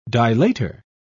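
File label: "dilator", British English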